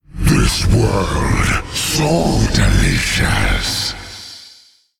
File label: Male Monster Play